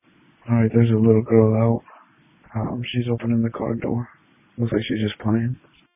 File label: Police Tactical Encrypted